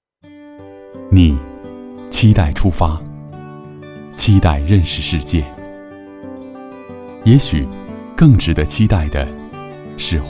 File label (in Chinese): 男国 广告 品牌形象 磁性; 康辉旅游